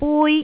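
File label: "bui1", Cantonese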